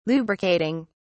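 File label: "lubricating", British English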